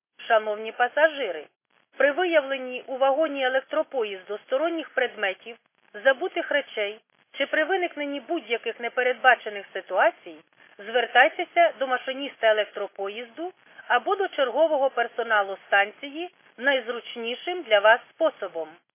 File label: khs st female, subway station